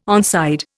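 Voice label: "onside", Indian English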